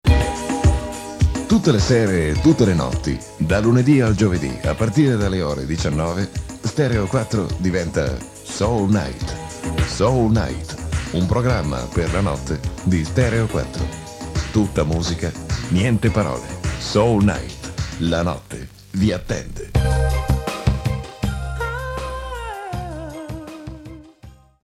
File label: promo stereo